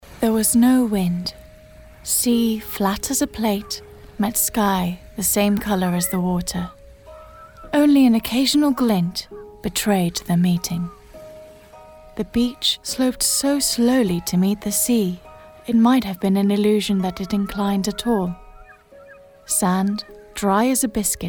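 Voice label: Greek English Female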